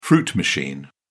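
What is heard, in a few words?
"fruit machine", British English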